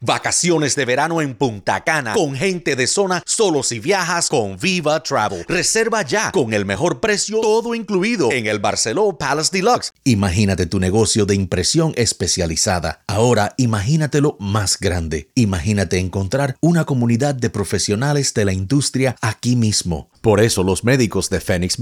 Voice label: spanish cuba male; Cuban Spanish-speakers